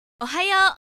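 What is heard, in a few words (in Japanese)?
"ohayo", Japanese; announce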